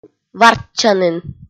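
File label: "варччанін", Komi, female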